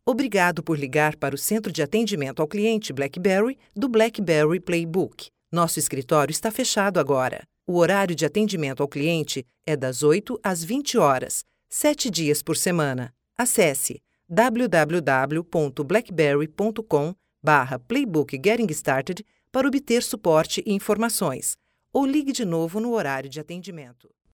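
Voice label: Brazilian Portuguese, female, narration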